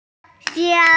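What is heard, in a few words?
"xiang1jiao1", Mandarin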